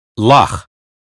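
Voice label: "loch", American English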